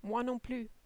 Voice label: "moi non plus", French